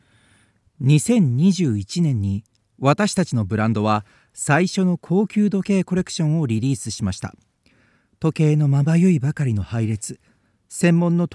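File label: Japanese general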